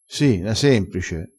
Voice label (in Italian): "si na semprice", crespinese